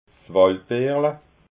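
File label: "waldbeerla", Alsatian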